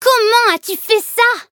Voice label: levelup, fr